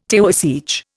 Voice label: "Taoiseach", Indian